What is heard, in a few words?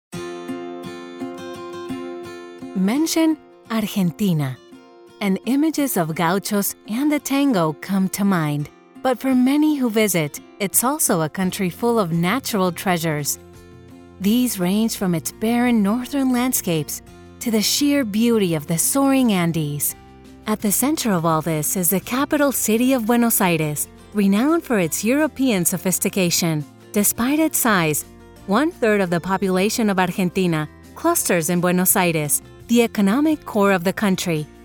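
female, Latin American Spanish, accented English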